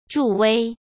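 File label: "助威", Mandarin Chinese; hsk; chinese-flashcards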